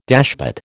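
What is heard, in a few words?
"dashpot", American English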